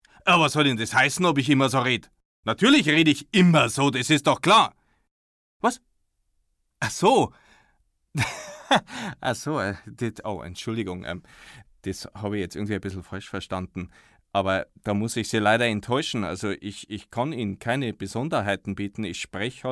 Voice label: dialekt

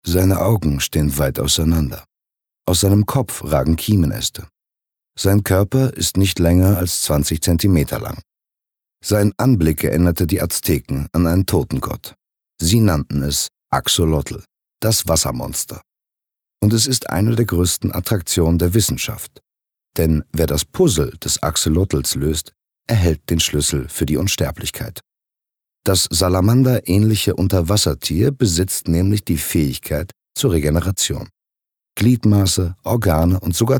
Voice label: Dokumentarischer Text; LOFT VOICES

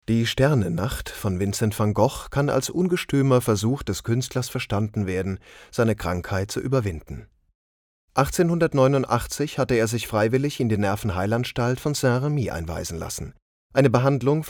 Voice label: Audioguide; Erklaer Die Sternennacht

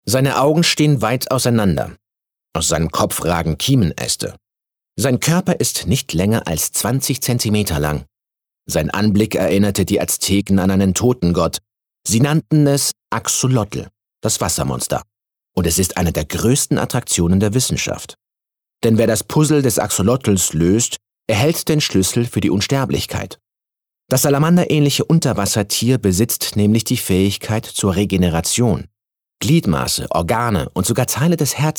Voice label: Dokumentarischer Text

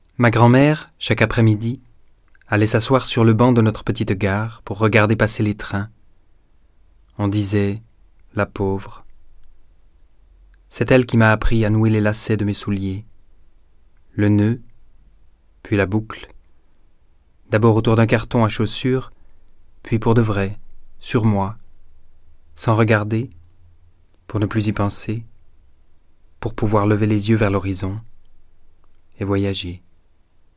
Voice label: dokfilm; LOFT VOICES

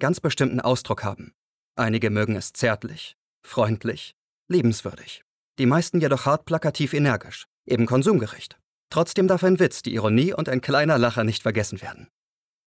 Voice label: Werbung vielerlei Arten

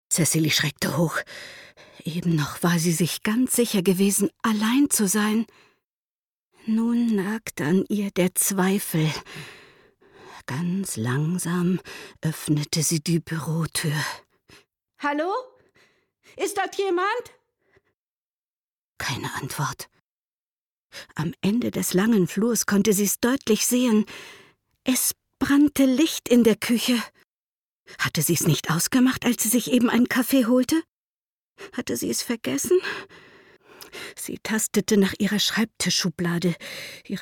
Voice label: hoerbuch

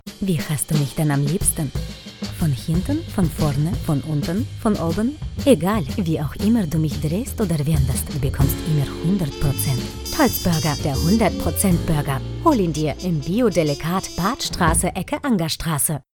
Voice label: russischer akzent

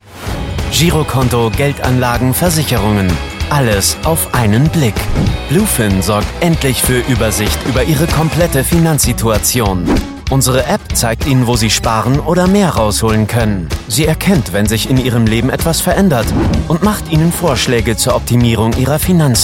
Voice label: Produktfilm

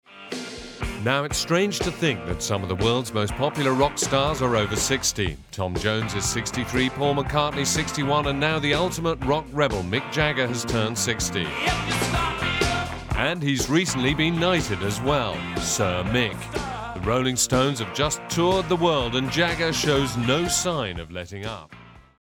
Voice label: uk englisch

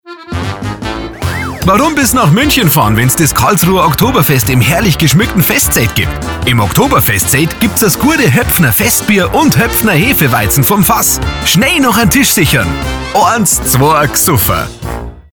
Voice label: Sprecherdemo Funkspot bairisch; LOFT VOICES

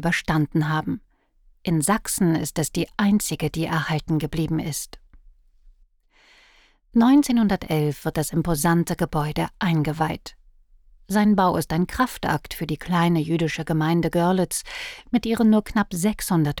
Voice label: audioguide synagoge; goerlitz; LOFT VOICES

